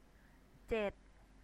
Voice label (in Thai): "jed", Thai